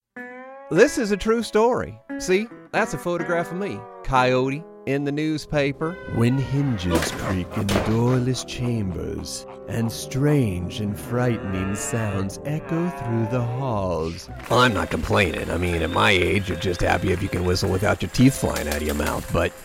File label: English American animation